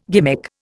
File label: "gimmick", Indian